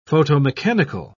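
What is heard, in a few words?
"photomechanical", British English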